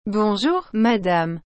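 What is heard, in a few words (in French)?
"Bonjour Madame", French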